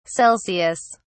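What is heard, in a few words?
"Celsius", British English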